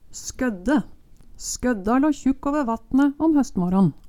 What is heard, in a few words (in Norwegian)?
"skodde", Numedalsmål; Ordet er berre bruka i eintal.